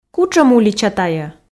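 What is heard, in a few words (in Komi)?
"кутшӧм улича тайӧ", Komi, female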